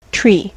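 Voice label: "tree", US English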